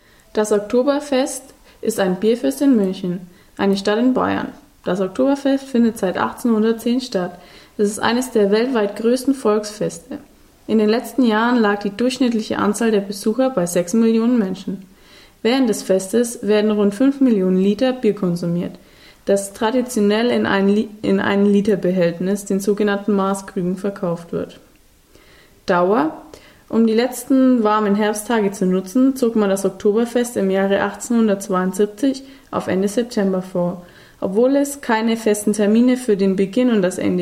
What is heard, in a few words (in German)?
deutsch; Oktoberfest